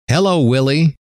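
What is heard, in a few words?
"hello willie", male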